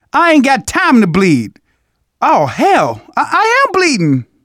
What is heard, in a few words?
zombie generic long, survivor voice; manager; Left 4 Dead 2; left4dead2 dlc2